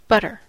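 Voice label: "butter", English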